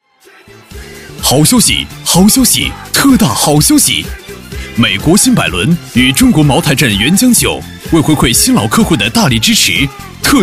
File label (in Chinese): 男 广告; 买新百伦送茅台酒